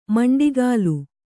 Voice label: "ಮಂಡಿಗಾಲು", Kannada

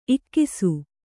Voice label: "ಇಕಿಸು", Kannada

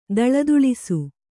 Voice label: "ಥಳಥಳಿಸು", Kannada

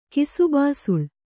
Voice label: "ಕಿಸುಬಾಸುಳ್" Kannada; kisubāsuḷ; noun; a red wale.

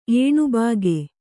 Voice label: "ಏಣುಬಾಗೆ", kannada

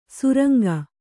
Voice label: "ಸುರಂಗ", Kannada

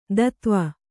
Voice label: "ಧತ್ವ", Kannada

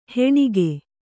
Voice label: "ಹೆಣಿಗೆ", Kannada

